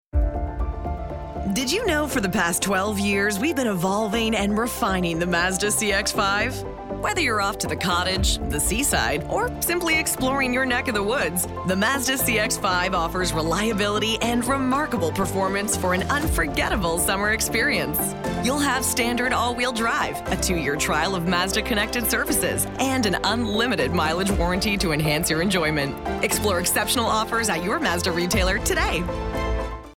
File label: female english canadian neutral north american accent commercial; mazda